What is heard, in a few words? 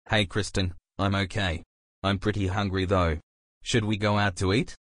male Intro